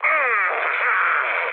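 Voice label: Italian damaged pilot mood low, speech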